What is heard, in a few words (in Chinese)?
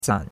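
"zan3" Chinese; Cours de Chinois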